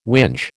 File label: "whinge", American